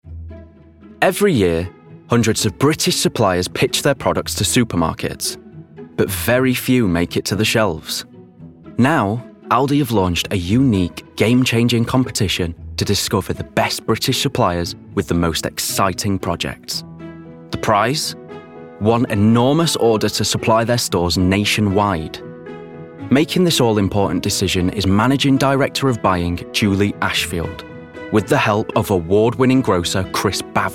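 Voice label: Documentary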